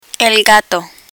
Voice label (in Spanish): "gato", Spanish